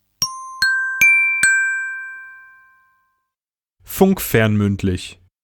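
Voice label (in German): "funkfernmündlich", German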